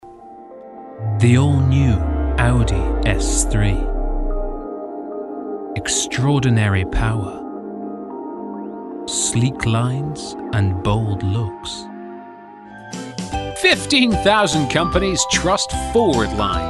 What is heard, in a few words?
English - British